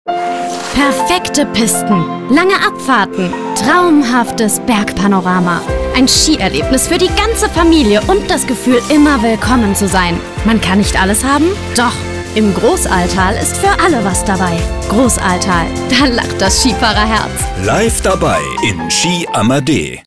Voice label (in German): Radiospot; Großarltal 2021; Ski Amadé